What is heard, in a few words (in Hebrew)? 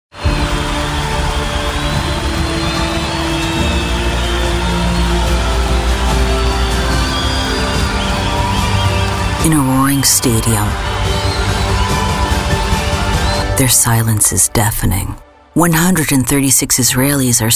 תשדיר רדיו